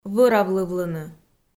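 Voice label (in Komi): "вӧравлывлыны", Komi-Zyrian, female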